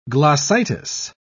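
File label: "glossitis", English, British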